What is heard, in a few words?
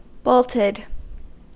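"bolted", US English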